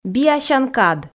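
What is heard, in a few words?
"биасян кад", Komi, female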